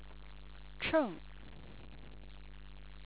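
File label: "cheng", Chinese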